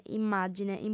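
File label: "immàgine", Sardinian, female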